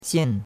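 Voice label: "xian4", Mandarin Chinese; Cours-de-Chinois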